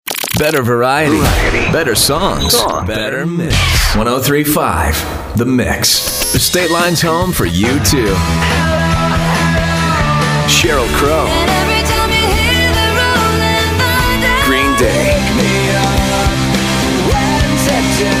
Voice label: aaa rock, radio imaging